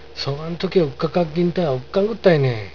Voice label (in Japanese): 有田弁, そがんとけうっかかっぎんた、うっかんぐったいねー。; 単語 うっかかっ; 意味 もたれかかる; 訳文 そんなところにもたれかかると（ものが）壊れるじゃないか。